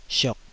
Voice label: "siok3", Taiwanese syllable

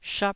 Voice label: "shap1", taiwanese Syllables

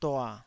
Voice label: "dua3", taiwanese syllables

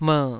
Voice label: "mer3", Taiwanese

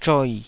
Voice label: "zhoi3", Taiwanese

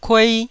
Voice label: "kuei1", taiwanese syllables

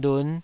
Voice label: "lun5", Taiwanese syllable

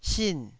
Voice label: "sin4", Taiwanese

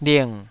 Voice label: "ling3", Taiwanese syllable

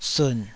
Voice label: "sun3", taiwanese Syllables

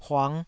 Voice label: "huang5", taiwanese

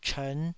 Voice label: "chern5", taiwanese

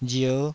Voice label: "rier5", taiwanese syllable

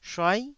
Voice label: "shuai9", taiwanese Syllables

